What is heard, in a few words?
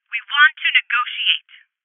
Voices Postpone Female